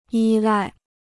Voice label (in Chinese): "依赖", Chinese